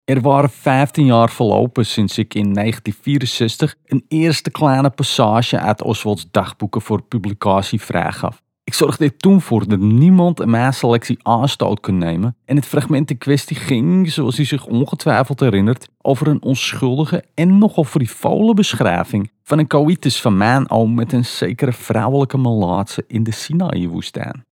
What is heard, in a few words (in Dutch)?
NL Amsterdams